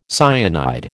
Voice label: "cyanide", American